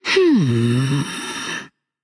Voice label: Female Undead Thinking

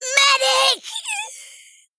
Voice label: Female Goblin Death

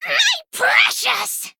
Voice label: Female Harpy Attack

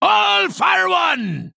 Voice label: Male Dwarf Attack

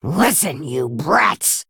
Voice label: Female Mummy (Dwarf) Attack

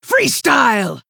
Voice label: Female Half Orc Emote Attack

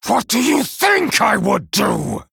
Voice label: Male Night Elf; Story Hero Kurtrus; Cariel

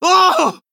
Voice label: Male Human Death

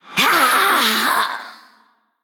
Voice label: Female Banshee Death

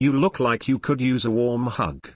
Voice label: Male Tauren; Guff; Scabbs

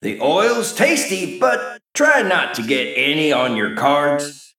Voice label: Male Mech Idle

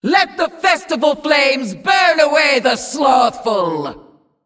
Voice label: Female Demon

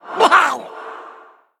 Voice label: Male Murloc Attack

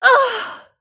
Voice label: Female Draenei Death

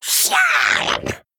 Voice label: Male Murloc Attack

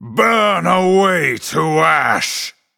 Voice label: Male Night Elf; Mana Burn

